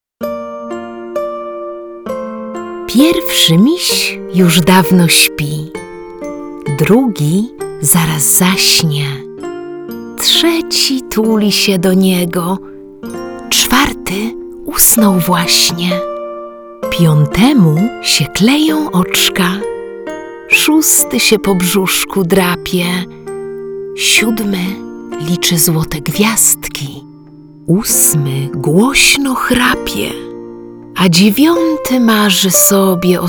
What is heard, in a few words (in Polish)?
Wierszyki with music